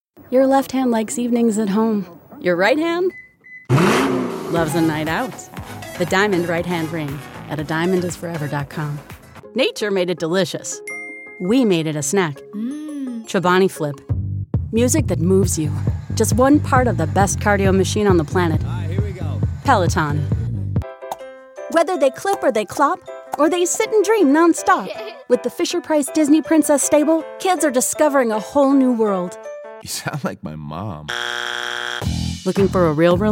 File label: Commercial